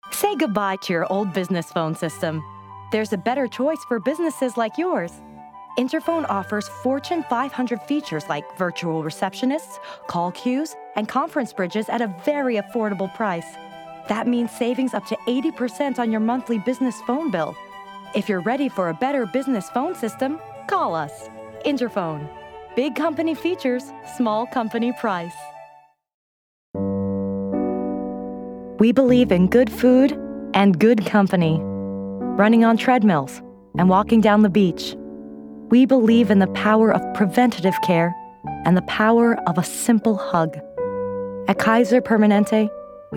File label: Natural